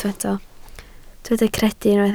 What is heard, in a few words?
"credu", Welsh